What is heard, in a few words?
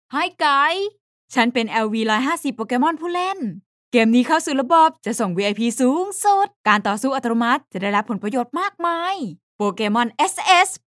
Thai Commercial F